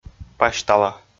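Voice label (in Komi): "пасьтала", Komi, male; audio dict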